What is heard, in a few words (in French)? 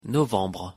pronunciation fr "novembre"